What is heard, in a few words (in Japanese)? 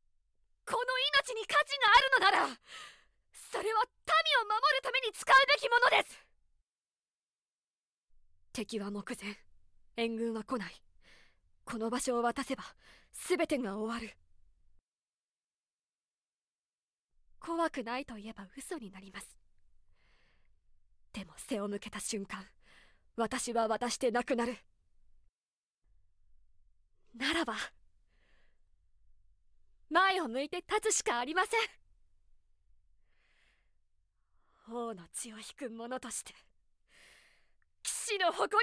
セリフ 女騎士